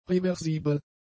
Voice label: "reversibel", German; adjectives